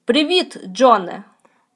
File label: dialogue, Ukrainian